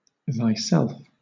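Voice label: "thyself", British English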